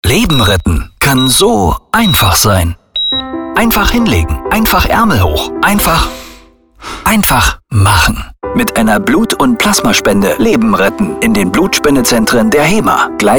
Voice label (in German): instore-spot; Leipzig; Muttersprache Deutsch; haema; kampagne einfach leben retten; rewe forum landsberger allee